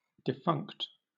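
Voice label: "defunct", British English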